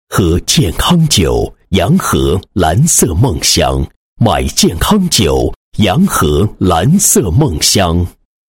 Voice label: 男 宣传广告 酒类广告; 洋河蓝色梦香

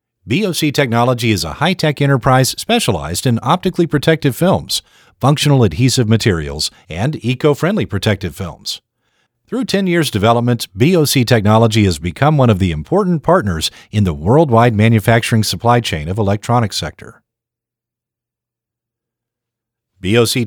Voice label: 英文配音稿; 蓝海芯

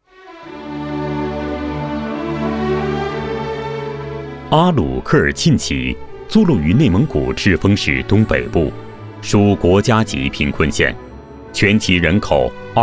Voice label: 男 人物专题 沉稳; 阿鲁科尔沁旗

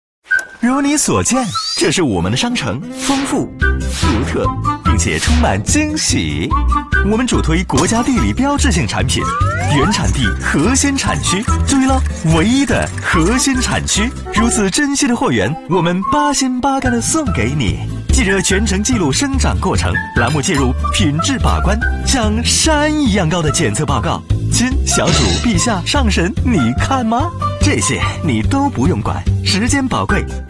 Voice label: 男 广告 活力 无厘头 商城周年庆, 国语男声配音

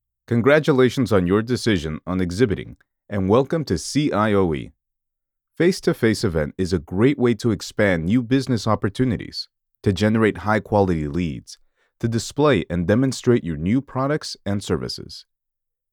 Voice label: 外籍英男 会展业 自然陈述干音; 中国光博会参展指南

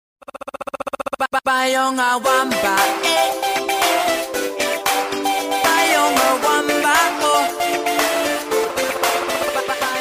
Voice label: 女 促销, 国语女声配音, 磁性; 大伟通讯

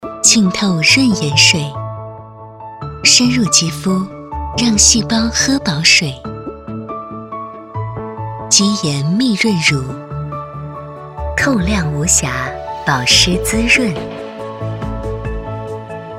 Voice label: 女 广告 化妆品 唯美 温柔

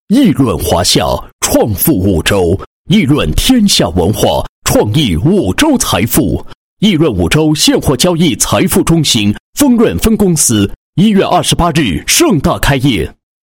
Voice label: 男 宣传广告, 配音; 艺润五洲现货交易财富中心宣传

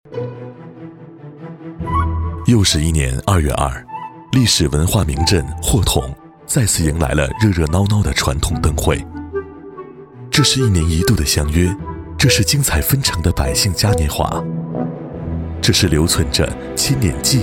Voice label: 男60号 舌尖风格; 功夫不负有心人; 国语男声配音; 交广主播

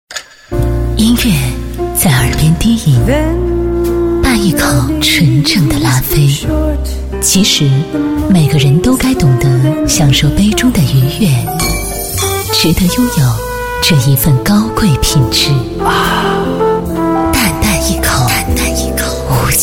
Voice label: 国语女声配音，广告 酒水类 感性品质; 优雅; 拉菲

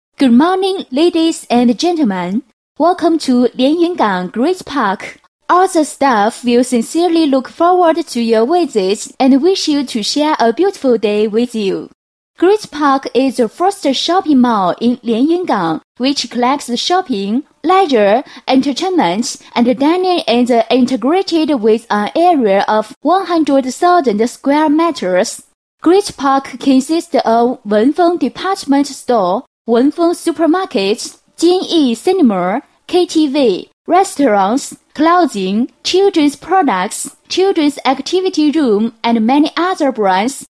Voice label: 英女 广播提示语音 顾客您好

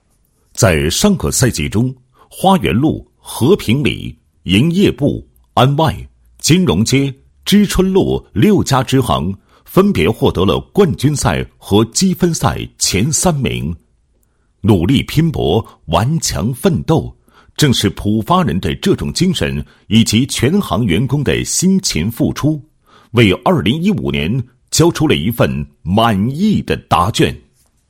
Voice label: 男 解说词, 配音; 第三季赛事结果解说词

